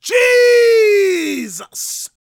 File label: PREACHIN, Gospel PREACHER